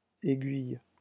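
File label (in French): "aiguille", French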